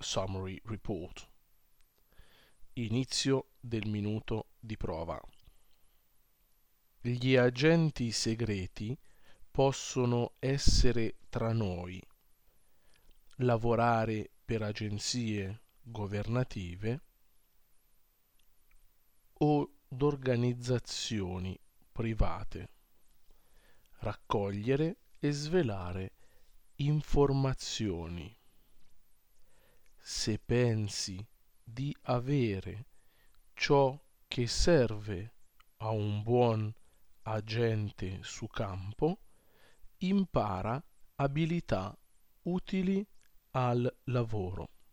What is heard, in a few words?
Italian 1 minute trial dictation